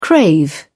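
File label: "crave", English, British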